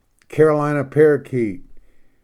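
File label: "carolina parakeet", English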